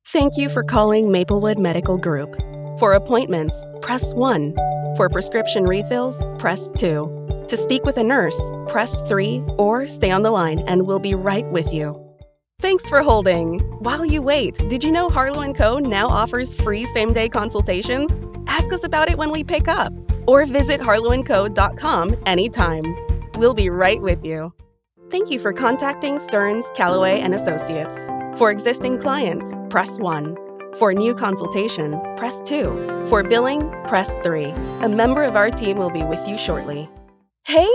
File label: IVR